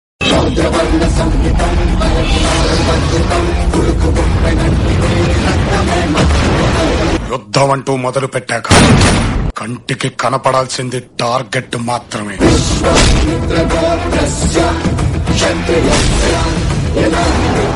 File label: Dialogue Telugu Ringtone; Oosaravelli; NTR